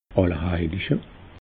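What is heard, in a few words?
"allerheilje", Alsatian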